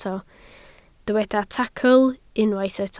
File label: "tacl", Welsh